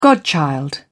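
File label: "godchild", British English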